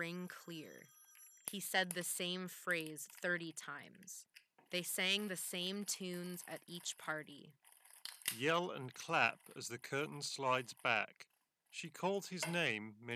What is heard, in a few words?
Office microphone sample; Logitech G433; headset